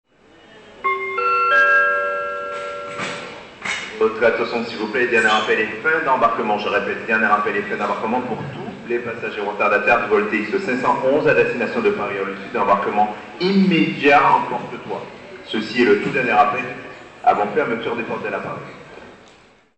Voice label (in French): annonce aeroport martinique accent creole